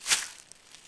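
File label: "grass", English; Shadowman; ambient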